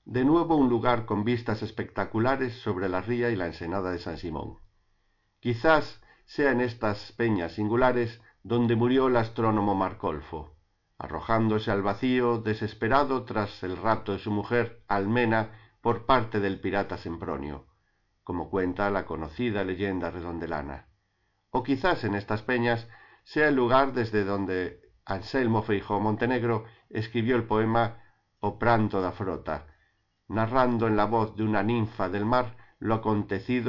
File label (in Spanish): castellano; MIRADOIRO PEDRA TAIO